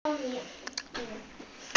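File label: "weng1", Mandarin Chinese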